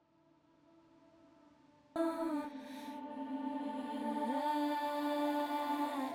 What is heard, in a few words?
Voc Reverse; Loops; Tonal; Vocal; Ableton Live 12 Lite; Core Library